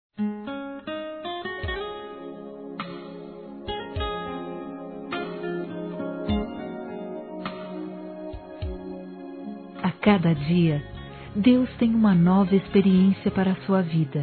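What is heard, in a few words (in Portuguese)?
VAI SER BATIZADO, feminino; Telemensagens; Telemensagens 2017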